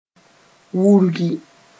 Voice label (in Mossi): "wurgi", moore; to pierce